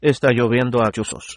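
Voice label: rain, Spanish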